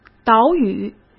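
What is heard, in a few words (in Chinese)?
"岛屿", Mandarin; chinese-flashcards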